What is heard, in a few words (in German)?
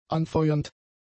"anfeuernd", German; adjectives